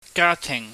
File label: "gatihng", Cantonese